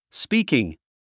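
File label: "speaking", English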